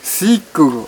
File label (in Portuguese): "chifre", kalapalo